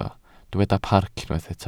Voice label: "parc", Welsh

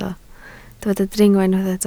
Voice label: "dringo", Welsh

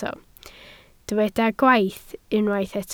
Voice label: "gwaith", Welsh

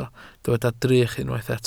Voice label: "drych", Welsh

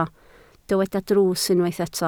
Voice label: "drws", Welsh

